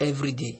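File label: "everyday", Nigerian Pidgin, male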